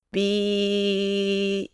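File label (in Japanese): "び", Japanese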